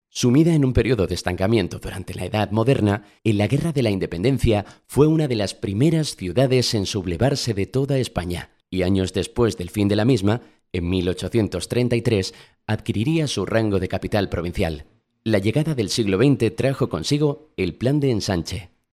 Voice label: locutor spanish voiceover

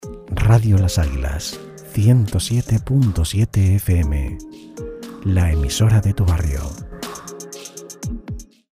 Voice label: locutor voiceover indicativo radio

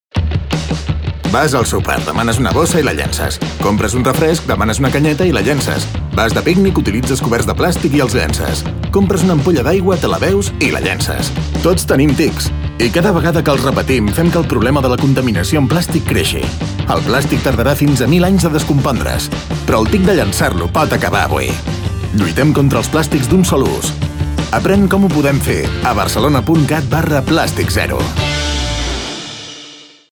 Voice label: locutor catalan spot catalan voiceover

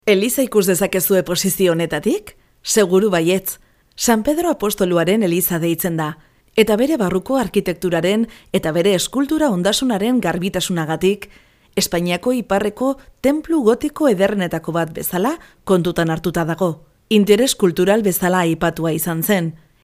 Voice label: locutora euskera basque voiceover

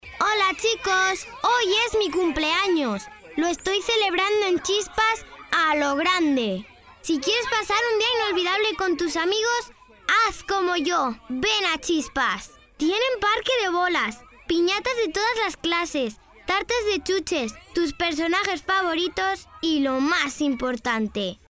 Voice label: locutora infantil kid female voiceover; Kid voice over talent

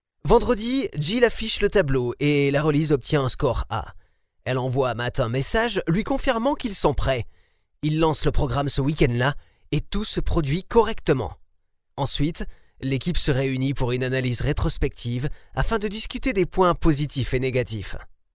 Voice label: locutor frances french voiceover; Fench dubbing services; Dubbing voices

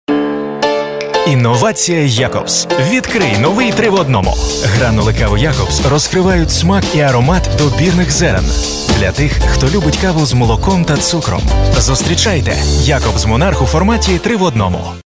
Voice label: ukrainian voiceover locutor ucraniano

